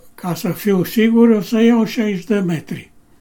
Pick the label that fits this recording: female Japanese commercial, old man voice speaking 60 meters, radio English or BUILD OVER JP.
old man voice speaking 60 meters